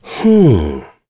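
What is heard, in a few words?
Vox Thinking, voices heroes English; kingsraid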